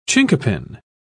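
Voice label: "chinquapin", English, British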